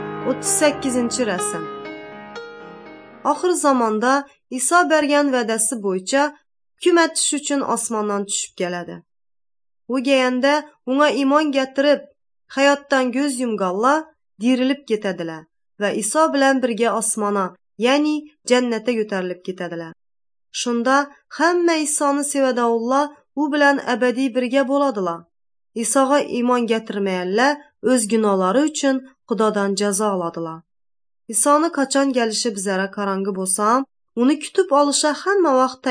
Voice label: uzbek northern kipchak; good news